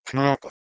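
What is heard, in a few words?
"knh2ko", Proto-Indo-European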